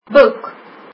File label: book, afrikaans